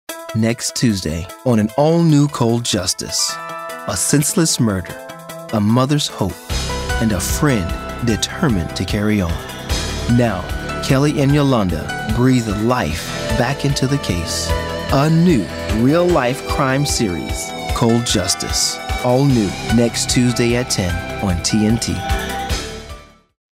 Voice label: Promo; Cold Justice

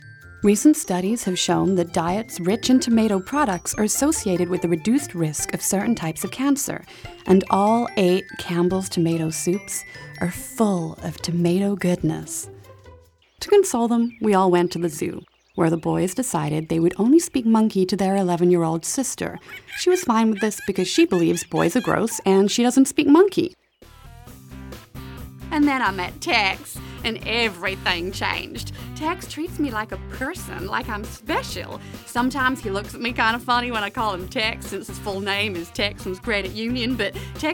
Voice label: Commercial AMERICAN

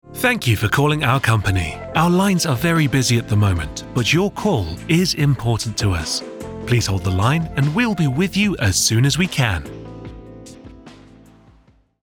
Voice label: IVR

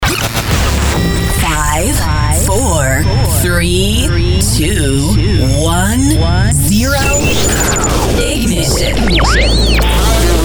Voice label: Imaging

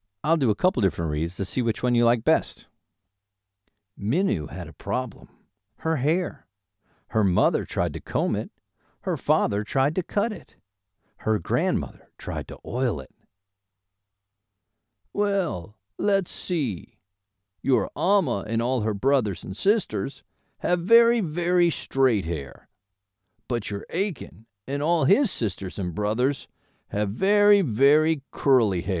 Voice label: Children Story

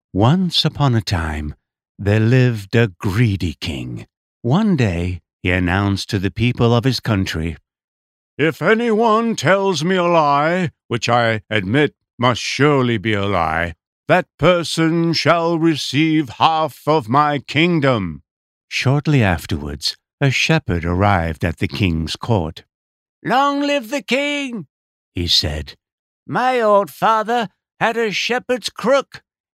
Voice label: Children's E Book

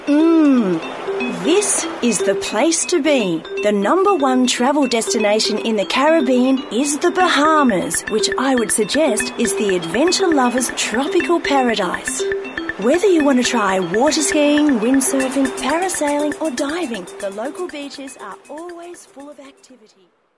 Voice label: Travel Promo

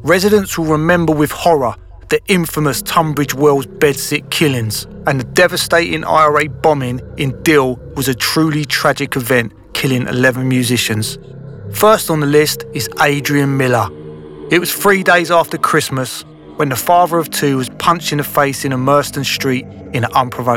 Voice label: UK CRIME TV SHOW

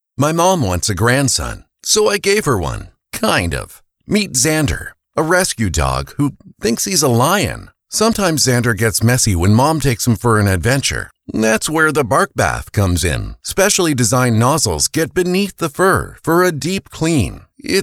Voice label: dry; Bissel Barkbath

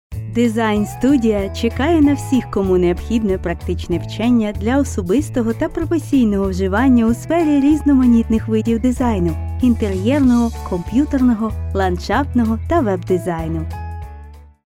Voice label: Advertisment Ukr music

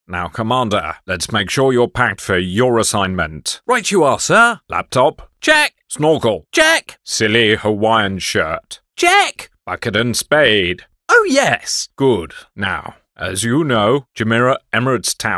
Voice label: character radio ad; Jumeirah

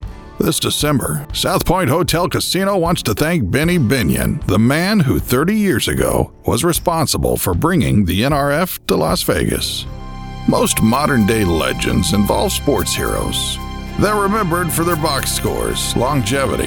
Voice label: Country Cool Laidback

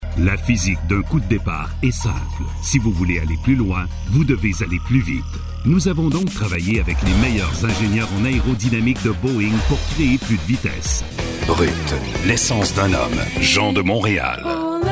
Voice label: Advertising

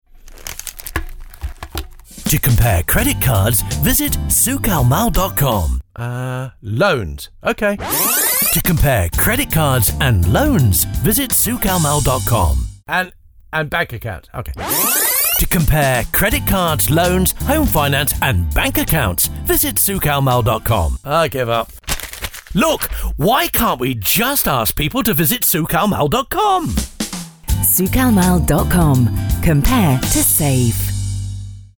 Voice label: English; Souq Almal

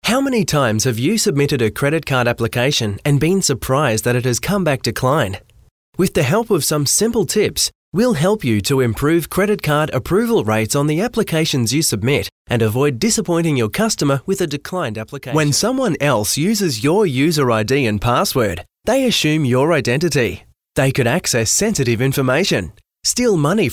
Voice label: e-learning narration

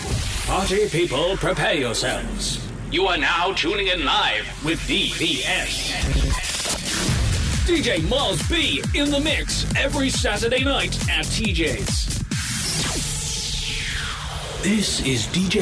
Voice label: Radio Imaging

